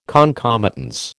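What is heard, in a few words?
"concomitance", American English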